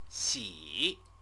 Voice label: "xi", Chinese